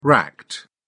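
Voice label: "racked", British English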